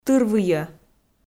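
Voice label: "тырвыйӧ", Komi, female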